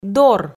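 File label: "дор", Komi, female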